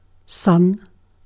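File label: "sun", British English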